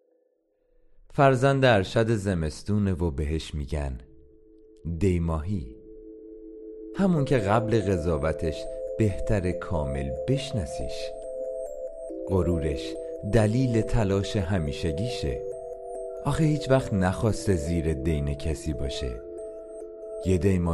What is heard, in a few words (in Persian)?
دکلمه متولدین دی ماه